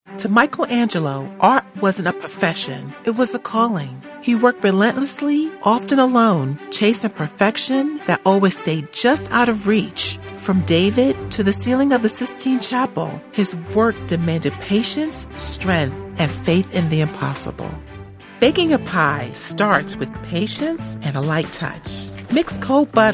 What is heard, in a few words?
Narration